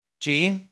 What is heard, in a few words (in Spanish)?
Voice Acting es M